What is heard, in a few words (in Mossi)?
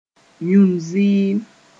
"yũn zɩɩm", moore